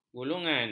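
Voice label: "gulungan", Malay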